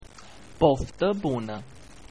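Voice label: bon appetit Romanian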